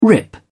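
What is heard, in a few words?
"rip", British English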